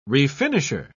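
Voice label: "refinisher", English, uk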